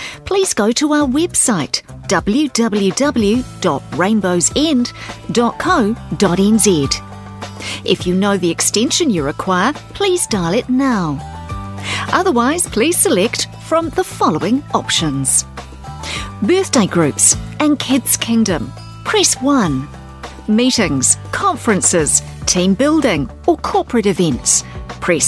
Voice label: new zealand, telephone on hold

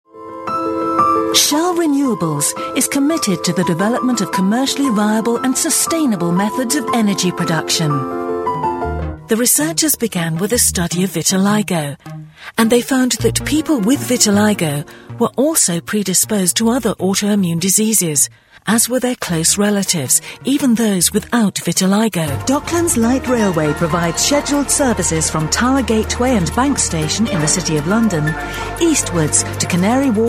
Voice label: corporate audio

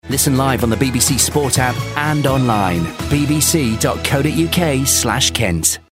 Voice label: radio sports id; bbc

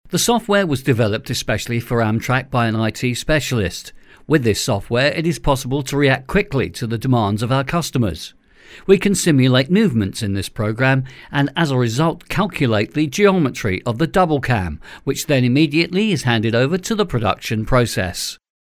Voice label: corporate

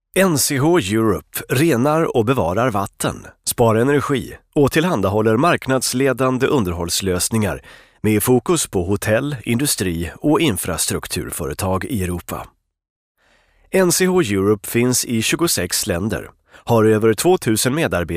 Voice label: swedish voice, swedish corporate

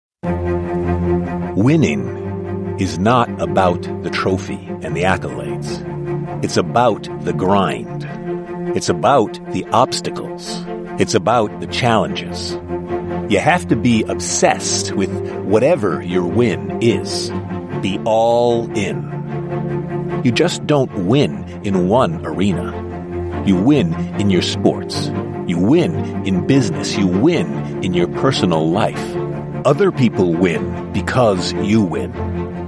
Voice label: american male voice corporate

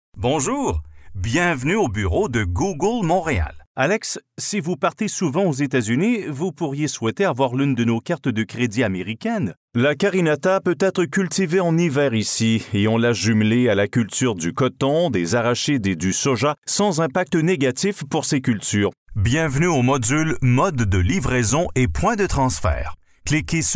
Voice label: french canadian male natural acting training

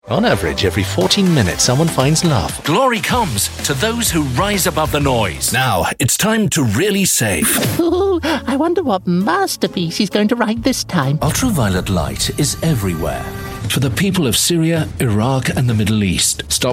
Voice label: commercials

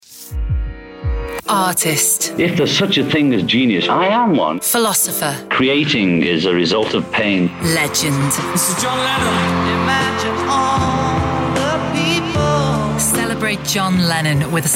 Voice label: liverpool voiceover commercial

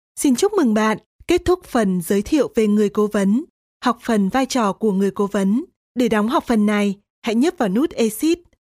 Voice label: elearning

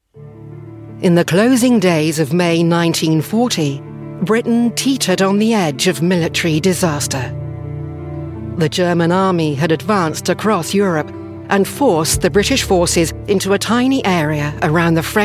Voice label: promo

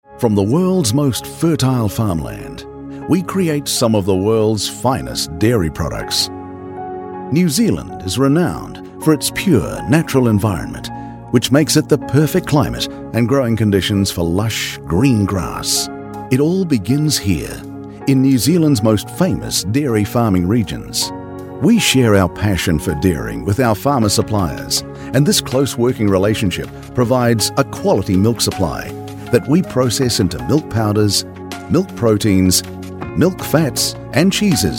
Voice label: corporate

